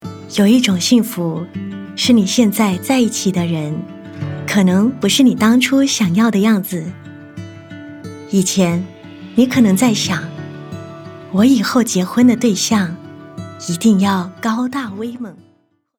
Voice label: Mandarin Narration, Style: Natural, Conversational and Warm